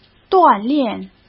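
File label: "锻炼", Mandarin Chinese; chinese flashcards; hsk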